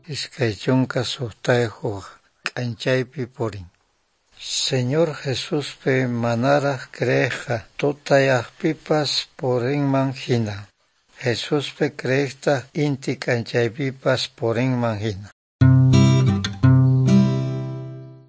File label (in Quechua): good news quechua norte de bolivia apolo; quechua bible